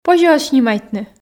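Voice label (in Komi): позьӧ оз снимайтны, Komi, female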